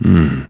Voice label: hmmm, English; thief

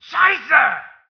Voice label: german; Spec Ops 2

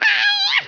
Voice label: "dragon punch", American; Speech; International

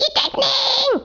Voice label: "Incoming", Danish

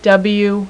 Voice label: "w", female; alphabet; Web Clip Empire 150,000

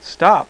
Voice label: "stop", voice

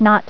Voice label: "Not", female voice; TalkingKeys

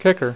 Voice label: "KICKER", dealer's speech

